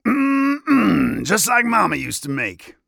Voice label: Warrior Kill taunt; Realm Royale; Hi-Tek Trooper

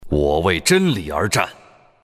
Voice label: move, zh; Dota 2

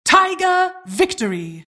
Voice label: announcer custom victory

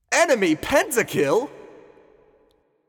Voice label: Announcer Enemy 5 Kills; Vox; Spree Classic

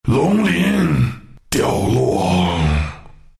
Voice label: death, zh; Dota 2

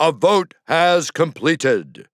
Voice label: Announcer Voting Completed; Bruce

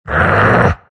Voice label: anger; troll warlord

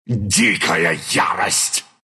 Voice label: attack ru; Lycan; Dota 2

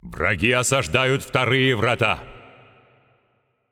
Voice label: Announcer Russian Match Under Attack Gate Second

